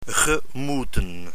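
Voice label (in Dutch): "gemoeten" Dutch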